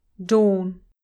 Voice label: "dawn", English